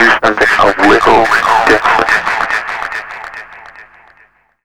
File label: Something A Little Diff, Vox FX; Total Drum&Bass